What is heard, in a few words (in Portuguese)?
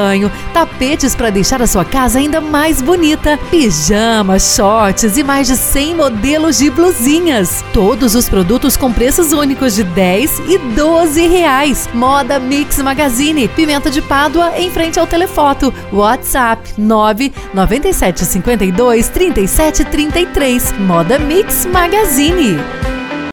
Feminina